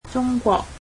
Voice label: "China", Cantonese

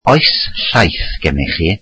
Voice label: welsh do you have milk

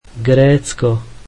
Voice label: slovak Greece

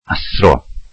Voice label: "teacher", Welsh

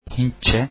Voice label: turkish Hindi

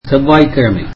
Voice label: tamil Tuesday

